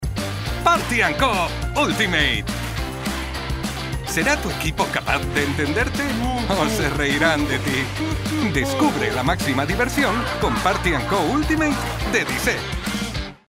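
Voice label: spanish announcements, Male; professional Spanish voice actor since 1990; Dubbing actor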